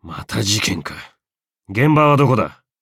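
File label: loading, Japanese; Cookie Run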